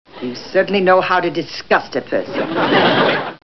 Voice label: disgust